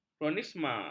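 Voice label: "kronisme", Malay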